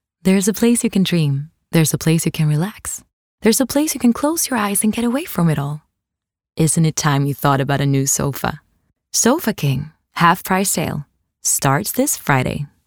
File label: eng us female